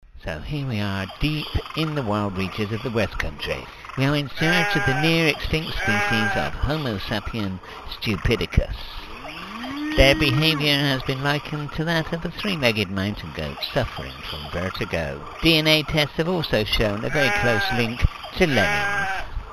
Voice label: Radio Ad